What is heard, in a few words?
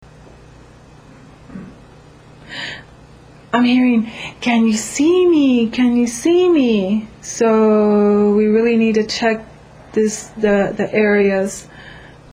noise can you see me; VC GhostHunt 2008; EVPs; Virginia City 2008; StMaryArt